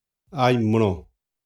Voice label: "aimro", xavante

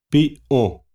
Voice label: "piꞌõ", xavante

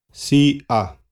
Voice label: "tsiꞌa", xavante

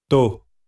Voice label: "tô", xavante; de fato

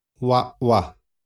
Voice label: "waꞌwa", xavante; pronuncia

